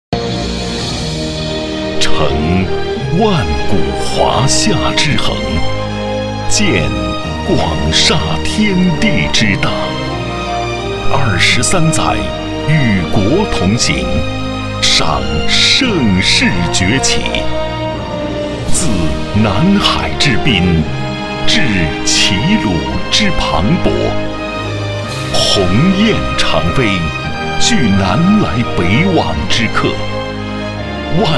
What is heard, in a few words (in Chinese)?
地产 广告; 恒大